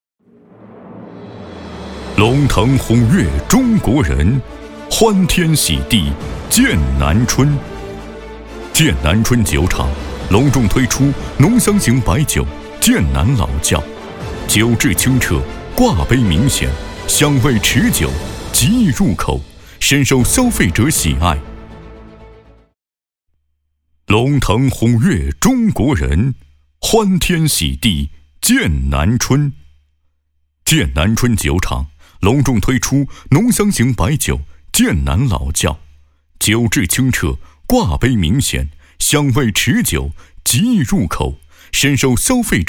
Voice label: 男 酒类宣传; 剑南老窖

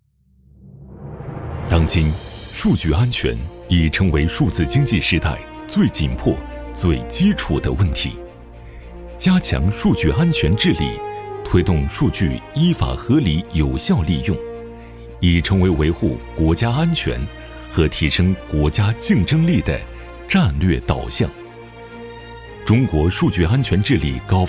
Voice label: 男 宣传 高峰论坛

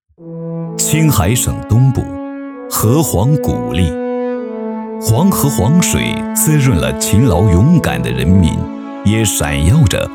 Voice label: 男 宣传片; 爱在河湟

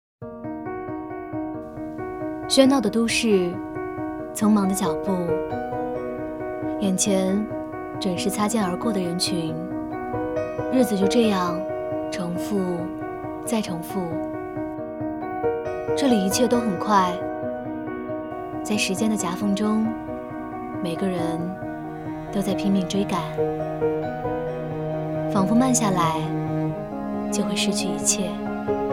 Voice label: 女 素人 讲述 第一人称; 西湖未来城讲述

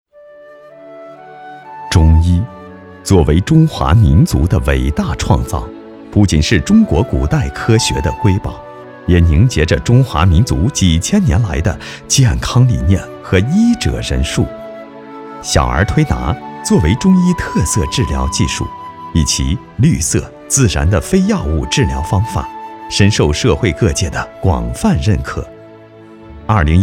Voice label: 男 宣传 中医小儿推拿

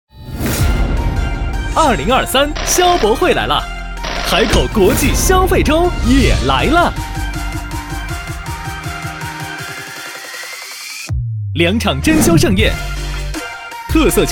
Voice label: 男, 宣传片; 2023消博会-海口国际消费周

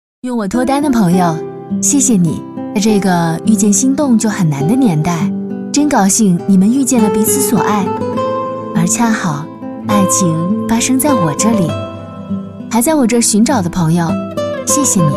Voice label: 女 旁白; 探探感恩节

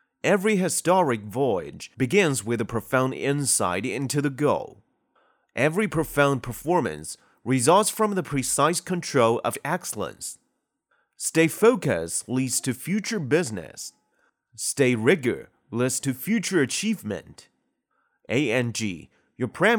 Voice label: 英男 公司宣传片

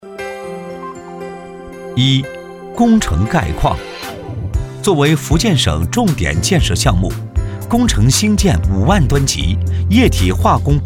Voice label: 男, 汇报; 湄洲湾港肖厝港区

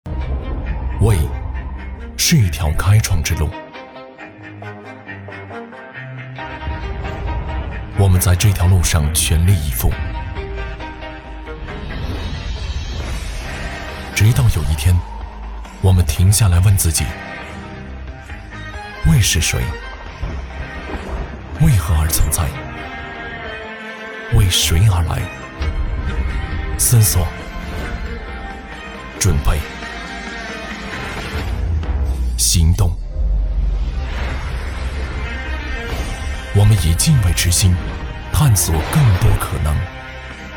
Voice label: 男 广告; WEY汽车

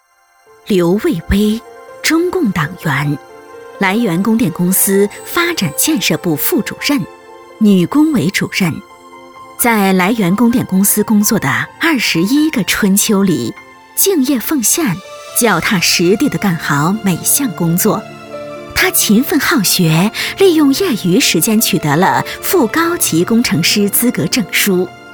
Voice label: 女 专题 温柔大气 人物介绍; 刘卫薇人物介绍

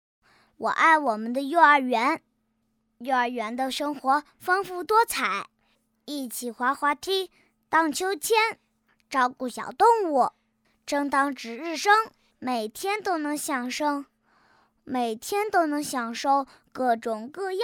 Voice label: 真童声角色

